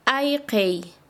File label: Chanka, quechua; escapar